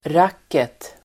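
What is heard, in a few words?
"racket", Swedish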